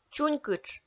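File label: "чунькытш", Komi-Zyrian, female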